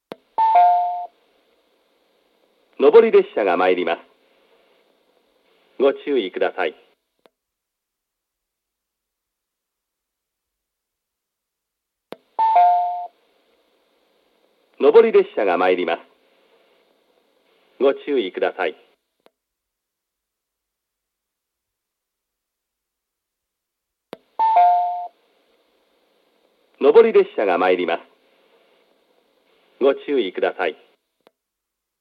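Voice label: platform 3 approaching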